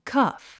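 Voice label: "cuff", English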